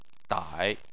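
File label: "dai" third tone, Chinese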